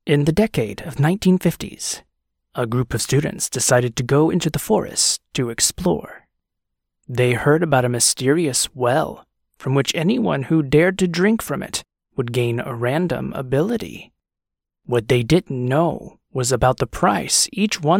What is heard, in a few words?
Movie Trailer Adult